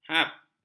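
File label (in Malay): "hap", Malay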